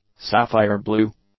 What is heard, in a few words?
"sapphire-blue", English; adjectives